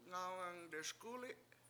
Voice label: "ngAwngang deSkUli7", washo; student

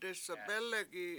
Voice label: "desebElegi7" blow to kindle fire, Washo

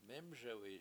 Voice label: "mEmSewi7", washo; steal

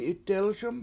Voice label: "7itdElS1m", Washo; Place Where You Sleep

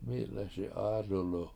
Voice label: mI7leSi 7AAdulu With Both His Hands, Washo

